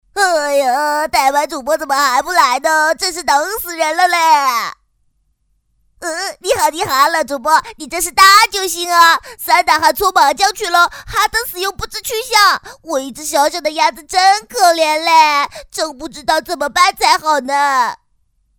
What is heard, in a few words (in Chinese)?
搞怪; 模仿; 湖南卫视黄小鸭风格：搞怪